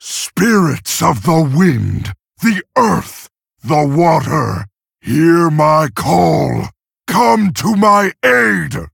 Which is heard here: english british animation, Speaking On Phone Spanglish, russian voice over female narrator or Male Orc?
Male Orc